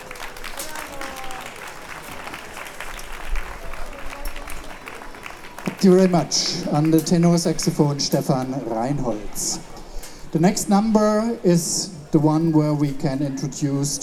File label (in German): Ansage